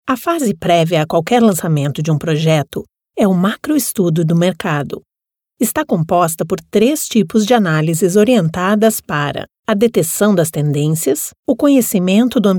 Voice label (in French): Voix PT studio Pro; Traduction audiovisuelle : doublage, voix off et sous-titrage